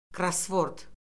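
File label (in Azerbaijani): "krossvord", Azerbaijani; Orfoqrafiya Lüğəti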